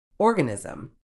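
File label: "organism", medical English, British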